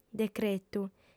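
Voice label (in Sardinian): "decretu", female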